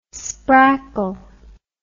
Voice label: "sprackle", English